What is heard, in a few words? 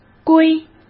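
"归", Mandarin Chinese; hsk; chinese-flashcards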